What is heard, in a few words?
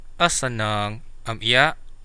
fine, Central Dusun; Osonong, om iya?